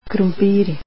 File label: "potatoes" croatian